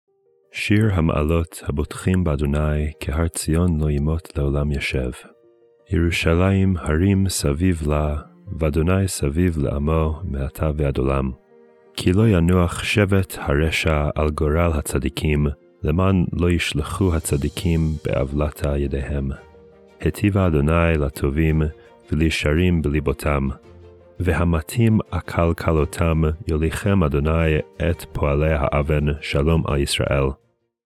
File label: poetry and prayer psalm hebrew